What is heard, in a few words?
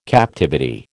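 "captivity", American English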